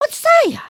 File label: group talk; emotes